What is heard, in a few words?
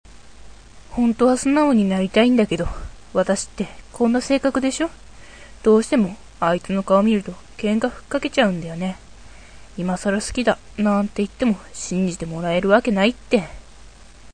girl